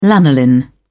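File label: "lanolin", British English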